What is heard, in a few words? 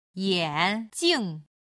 "yan3jing5", chinese